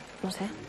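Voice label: Voice Acting es Female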